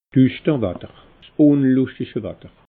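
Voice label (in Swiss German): "duschter watter", Alsatian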